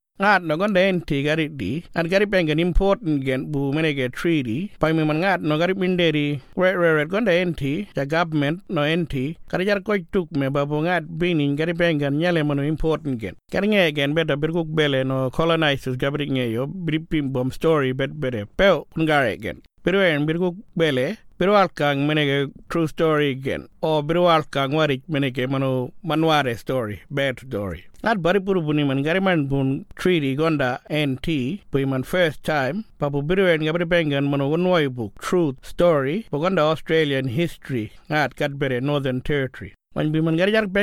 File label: Kunwinjku